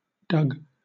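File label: "dug", British English; pronunciation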